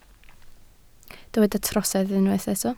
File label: "trosedd", Welsh